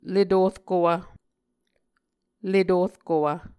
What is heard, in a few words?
"le torkowv", Muscogee